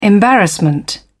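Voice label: "embarrassment", British English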